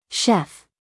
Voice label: "chef", us female; everyone-can-use-english

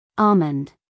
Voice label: "almond", us female; everyone-can-use-english

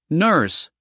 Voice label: "nurse", US male; 1000 hours

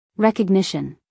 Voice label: "recognition", US female

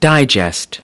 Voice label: "digest" noun, US English

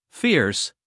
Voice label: "fierce", us male; everyone-can-use-english

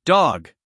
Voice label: "dog" US English, male; everyone-can-use-english

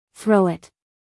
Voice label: "Throw it" us female

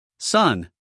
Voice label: "sun", us male; 1000 hours

